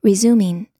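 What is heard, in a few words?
"resuming", US English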